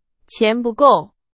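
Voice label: Chinese; hsk2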